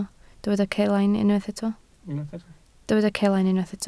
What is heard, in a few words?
"celain", Welsh